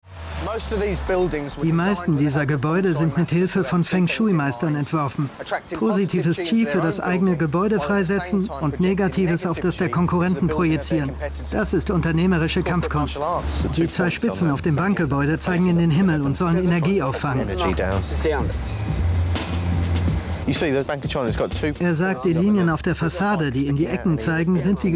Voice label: voice over